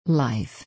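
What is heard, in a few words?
"life", English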